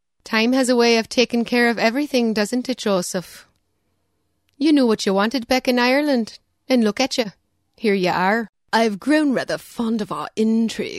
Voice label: Character voice